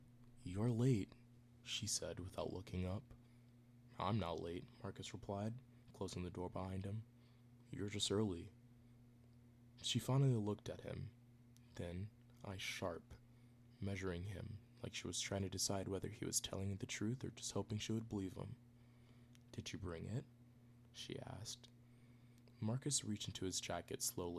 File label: character dialogue VO, audiobook